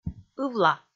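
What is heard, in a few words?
"ывла", Komi, female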